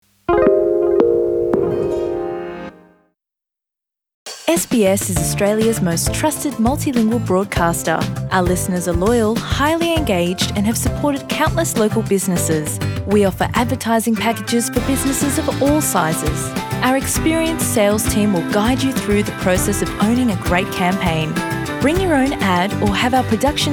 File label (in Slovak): slovak; sbs